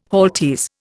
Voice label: "halteres", Indian